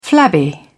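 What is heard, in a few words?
"flabby", British English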